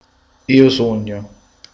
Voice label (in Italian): "io sono", vocabolario